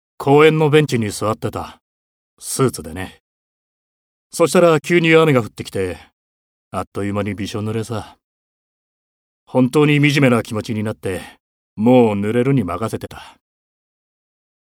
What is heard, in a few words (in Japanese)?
落ち込んだビジネスマン独白